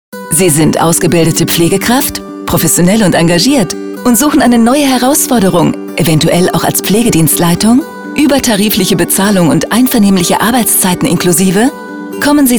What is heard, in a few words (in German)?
Spot; Seniorenwohnen Plus; Recruit; Examinierte Pflegefachkraft